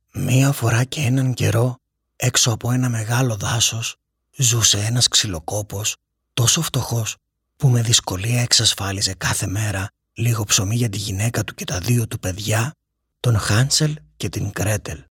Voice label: greek narration